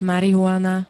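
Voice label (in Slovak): "marihuana", Slovak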